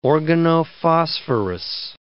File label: "organophosphorus", English word